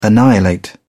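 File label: "annihilate", British English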